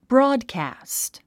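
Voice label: "broadcast", English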